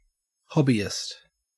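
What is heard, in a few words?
"hobbyist", Australian English; pronunciations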